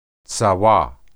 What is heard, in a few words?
"tsawah", Hebrew